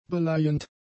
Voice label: "beleihend", German; adjectives